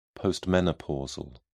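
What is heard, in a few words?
"postmenopausal", British English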